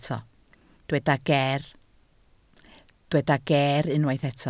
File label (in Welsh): "geer" Welsh